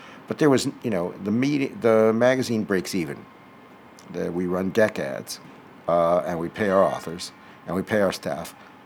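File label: interview bell background noise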